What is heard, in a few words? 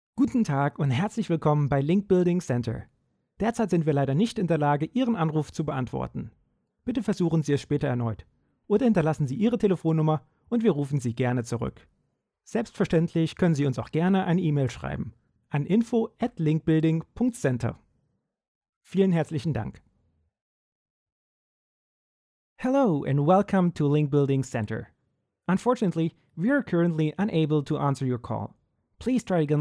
IVR DE EN; Linkbuilding Center